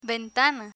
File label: "ventana", Spanish